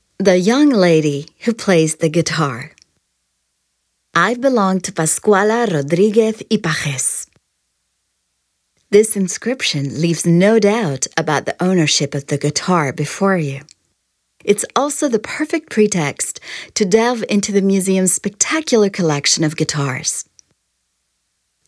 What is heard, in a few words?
audioguia english; delamusica